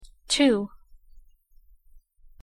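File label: "too", English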